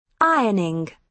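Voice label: "ironing", British English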